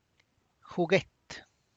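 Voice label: tlacochahuaya, Zapotec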